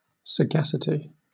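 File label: "sagacity", British English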